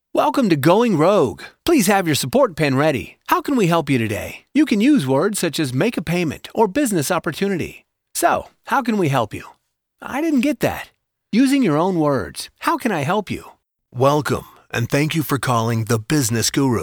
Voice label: IVR; AdvantageIVR